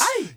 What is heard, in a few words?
"Aight", Vox